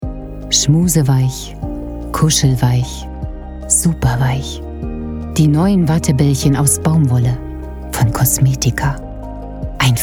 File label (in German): Werbung Wattebaellchen